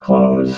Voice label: "close", robot